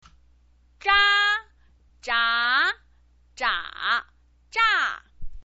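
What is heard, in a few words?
"zha", Mandarin Chinese pinyin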